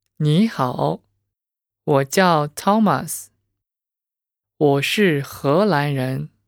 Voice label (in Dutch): monoloog